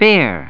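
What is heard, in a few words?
"bear", English word; 単語絵カード * どうぶつ; くま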